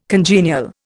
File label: "congenial", Indian